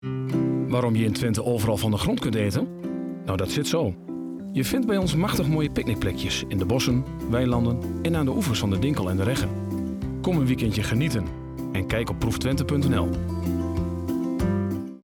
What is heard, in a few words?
Commercial; TBVT; ProefTwente; Twents dialect